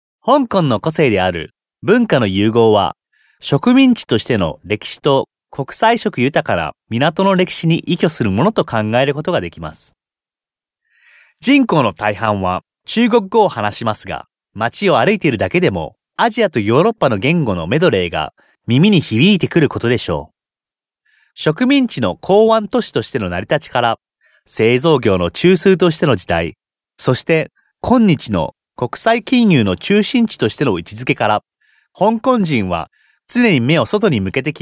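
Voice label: Japanese male corporate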